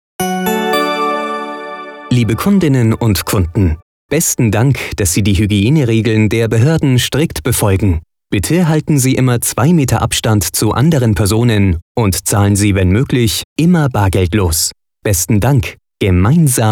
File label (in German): Ansage Corona